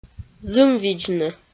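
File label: "зымвидзны", Komi, female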